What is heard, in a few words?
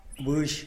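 "bux", wild pigeon, Tlacochahuaya Zapotec; animals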